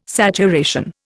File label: "saturation", Indian